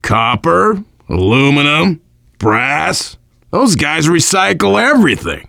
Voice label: recycling, voice, biker; Left 4 Dead 2; left4dead2 dlc3; survivor